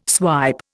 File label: "swipe", Indian